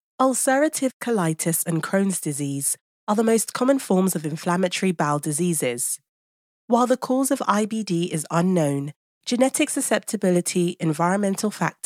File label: English British medical narration, Englisch (Britisch)